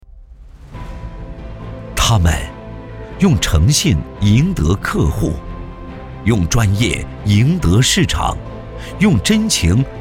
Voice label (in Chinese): 男, 宣传片; 奋斗宏伟; 他们用诚信赢得客户